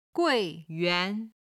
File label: "guiyuan", Chinese